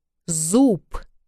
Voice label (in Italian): "z" in russo